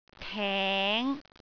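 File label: "teng5", Cantonese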